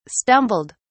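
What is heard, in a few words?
"stumbled", English, uk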